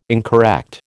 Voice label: "incorrect", American

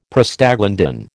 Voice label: "Prostaglandin", American

